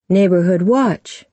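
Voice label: "neighbourhood watch", American English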